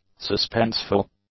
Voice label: "suspenseful", English; adjectives